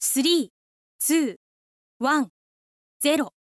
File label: info lady countdown